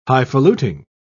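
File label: "highfaluting", English, British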